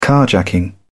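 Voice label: "carjacking", British English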